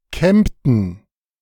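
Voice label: "Kempten", German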